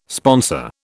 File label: "sponsor", British